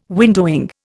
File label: "windowing", Indian